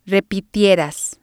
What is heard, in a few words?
"repitieras", spanish